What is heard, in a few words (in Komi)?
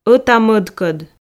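"ота-модкод", Komi, female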